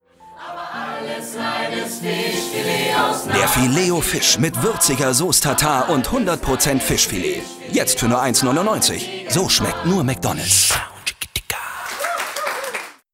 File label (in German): OFF, maenner; McDonalds; Fiet o Fish